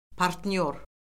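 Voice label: "partnyor", Azerbaijani; Orfoqrafiya Lüğəti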